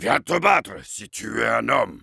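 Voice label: Heavy melee dare, French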